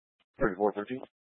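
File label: Police Dispatch, Grand Junction, Mesa County, Colorado; Police 1 Dispatch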